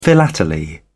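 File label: "philately", British English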